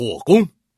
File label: fire attack, male; FreeKill; maneuvering